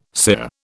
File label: "sear", British